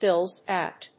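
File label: "fills out", English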